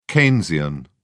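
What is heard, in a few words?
"keynesian", British English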